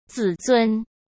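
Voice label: "自尊", Mandarin Chinese; hsk; chinese-flashcards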